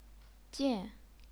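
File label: "jian", Chinese, fourth tone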